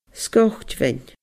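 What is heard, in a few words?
"Scoitbheinn", Scottish Gaelic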